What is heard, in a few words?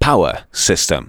"POWER SYSTEM", SPOKEN; Techno Trance Essentials